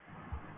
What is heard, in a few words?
phone address verify